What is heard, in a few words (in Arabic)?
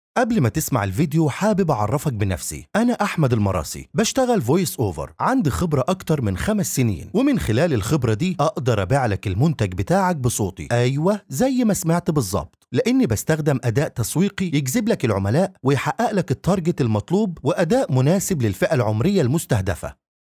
عينة تسويقية